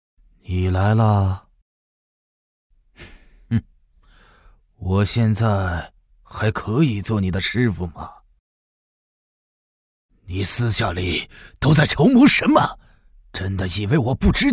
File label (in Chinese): 国语 男声 角色 老人师傅 失望的声音 动漫 素人